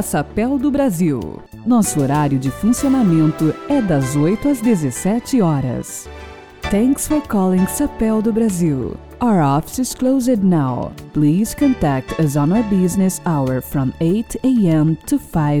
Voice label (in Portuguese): mensagem, mensagem de espera telefônica